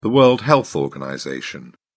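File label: "world health organization", British English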